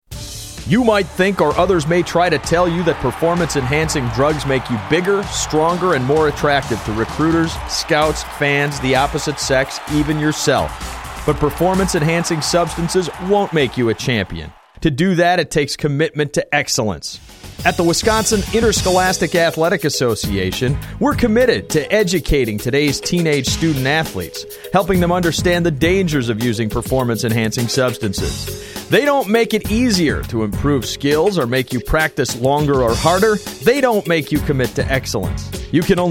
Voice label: audio spot